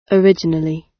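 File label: "originally", British English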